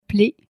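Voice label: "plaie", French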